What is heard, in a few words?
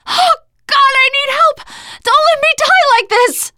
ledge hang end, teengirl